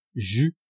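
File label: "jeus", French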